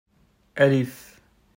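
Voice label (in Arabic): "الف" Arabic; quiz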